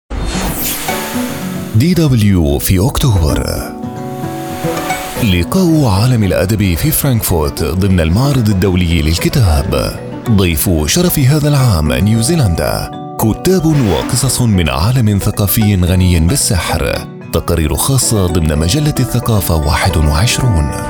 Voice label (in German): arabisch werbung; sprecher